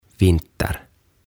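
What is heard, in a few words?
"Vinter", Swedish; Talvi; OTAVA KONSERNI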